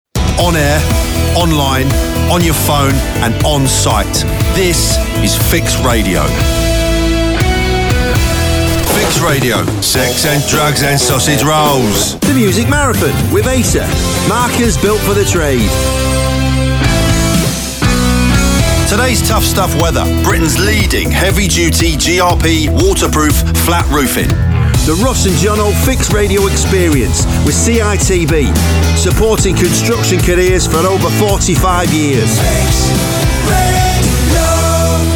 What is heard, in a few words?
MANCHESTER LONDON ACCENTS; FIX RADIO